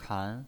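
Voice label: "kan", second tone, pinyin voice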